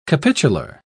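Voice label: "capitular", English, British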